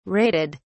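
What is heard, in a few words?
"raided", British English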